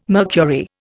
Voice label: "mercury", Indian

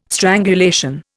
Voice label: "strangulation", Indian

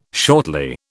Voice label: "shortly", British

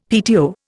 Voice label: "PTO", Indian; Dictionary

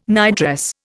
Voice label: "nightdress", Indian

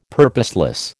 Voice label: "purposeless", American

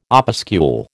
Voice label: "opuscule", American

